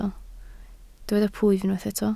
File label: "plwyf", Welsh